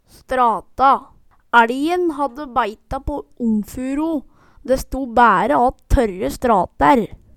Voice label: strata, Numedalsmål

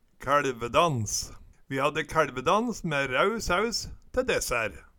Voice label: kaeLvedans, Numedalsmål

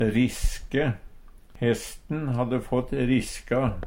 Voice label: riske, Numedalsmål; Hesten hadde fått riska.

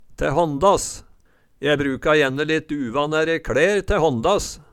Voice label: te hånndass, Numedalsmål

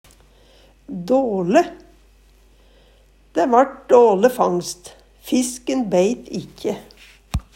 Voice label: "dale", Numedalsmål

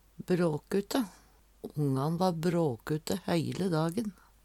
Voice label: "bråkute", Numedalsmål

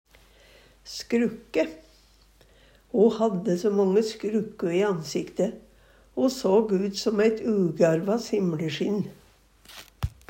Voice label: "skrukke", Numedalsmål

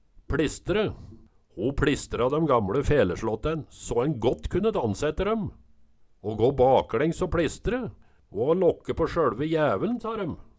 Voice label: "pListre", Numedalsmål

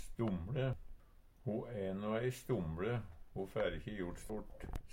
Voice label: stomLe, Numedalsmål

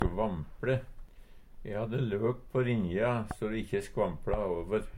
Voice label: "skvampLe", Numedalsmål; Verb

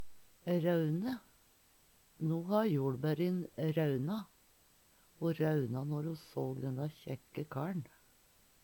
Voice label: raune, Numedalsmål; No ha joLbærin rauna. Ho rauna når ho såg denne kjekke kar`n.; Verb

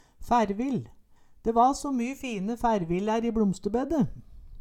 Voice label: færvill, Numedalsmål; Fevrell vert og bruka.

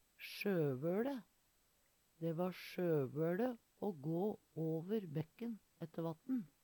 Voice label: sjovole, Numedalsmål; Adjektiv; Veggli

